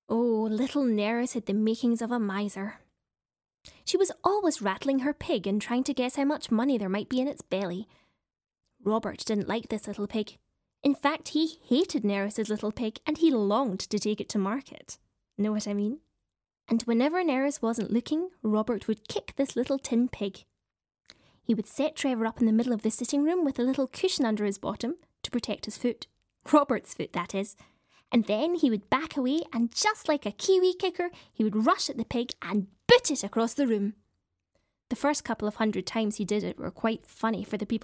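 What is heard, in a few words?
UK English Voice Over Narration, female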